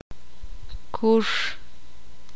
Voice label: "pierna", ixil

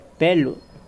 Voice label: Nawat palabras perro; pipil

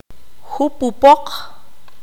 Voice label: "volar", Q'anjob'al

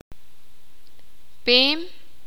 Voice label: "grueso de objetos planos", sakapulteko

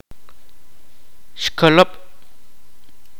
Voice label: "agallas intestinos", Kaqchikel

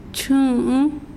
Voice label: "nombre", mazahua

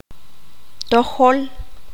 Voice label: correcto, qanjobal

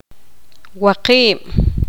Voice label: poqomam seis

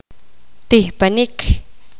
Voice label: poqomam saltar

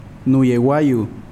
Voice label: Nawat palabras piel; pipil

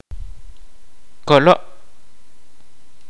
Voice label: "lazo", kaqchikel

